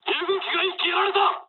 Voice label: aircraft destroyed mood low, speech jp